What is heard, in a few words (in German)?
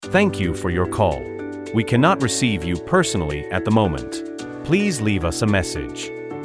Fritzbox Ansage